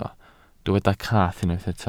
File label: "cath", Welsh